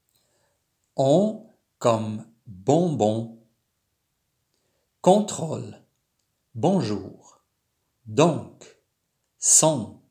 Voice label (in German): Franz Beispiel Aussprache